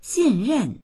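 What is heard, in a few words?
"xìnrèn" Mandarin Chinese; 信任; 信用（する）；信任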